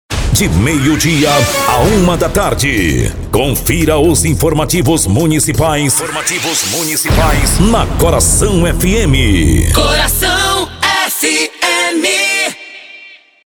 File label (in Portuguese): INFORMATIVO